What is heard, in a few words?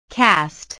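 "cast", English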